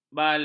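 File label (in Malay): "balam", Malay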